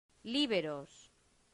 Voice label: "liberos", latin; santillana

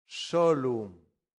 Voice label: "solum", latin; santillana

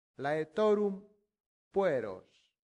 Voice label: "laetorum Pueros", Latin; santillana

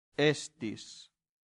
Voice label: "estis", Latin; santillana

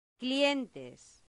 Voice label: "clientes", latin; santillana

